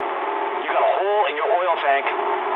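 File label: damaged oil mood low, speech English; War Thunder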